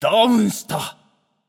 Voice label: notify slain you, japanese; caster